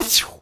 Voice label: "pwsh" male; ooh ahh; human

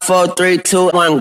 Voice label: 1 2 1 2 3 british bloke; Voice; numbers and letters

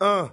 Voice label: "uh", male

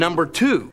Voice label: number two male; numbers and letters

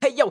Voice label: "hey yo", voice; ooh ahh

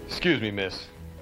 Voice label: "excuse me miss", Voice; expletives

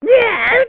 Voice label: "yeah" cartoon, voice; ooh ahh

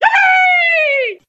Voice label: "yippie", cartoon

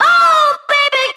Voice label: "oh baby", female; ooh ahh

